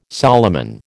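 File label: "Solomon", American English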